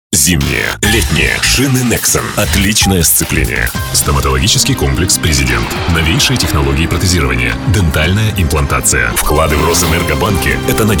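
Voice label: Russian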